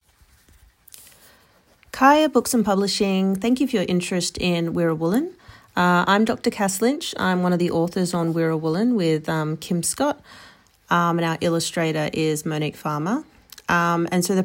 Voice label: Wirrawoorliny pronounciation, Noongar Language; Wirrawoorliny/Whirlwind; Wirlomin Noongar Language and Stories Project; UWA Publishing